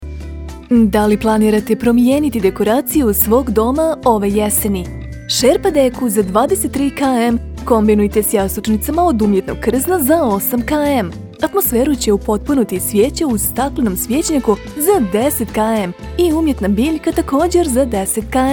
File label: bosnian promos; Serbian native voice-over actor from Belgrade